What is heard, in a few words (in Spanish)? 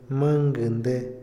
"anteayer", Otomí, hombre; INALI